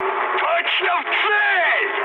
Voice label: bomb success, mood high, speech ru; War Thunder